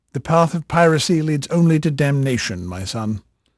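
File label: English male, voice; Pirates Of The Caribbean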